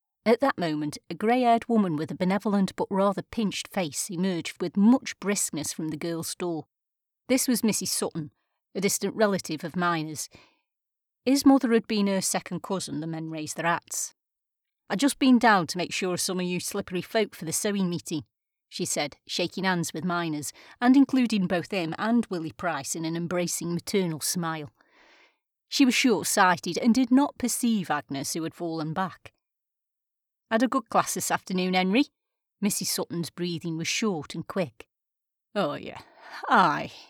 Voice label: British female voice over and actor Stoke accent reading